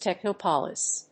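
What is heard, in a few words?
"techno-polis", English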